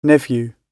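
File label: "nephew", British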